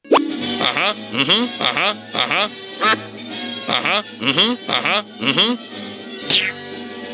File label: Cartoon AH HA ETC; Anoying